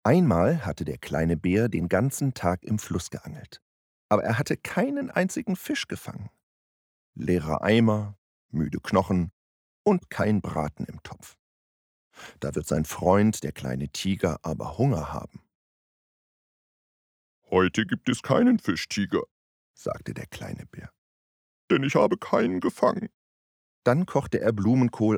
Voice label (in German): Sprecher Kinderbuch mit Rollen